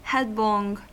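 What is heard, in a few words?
"headlong", US English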